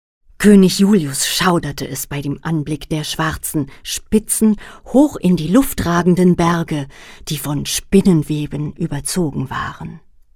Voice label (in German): erzaehler